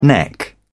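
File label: "neck", British English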